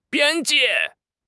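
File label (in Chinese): "边界", Chinese; HSK6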